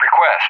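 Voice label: "request" English, speech; JTAC; DCS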